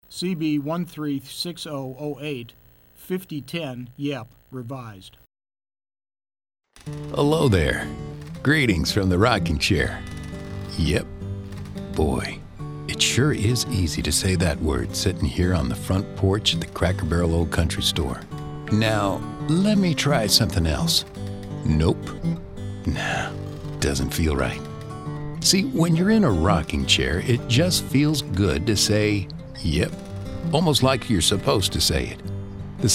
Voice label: COMMERCIALS, Male VOs